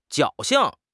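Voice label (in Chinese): "侥幸", Mandarin Chinese; HSK6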